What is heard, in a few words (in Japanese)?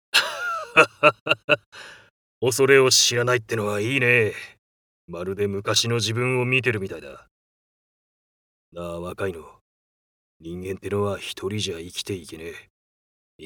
アニメ 中年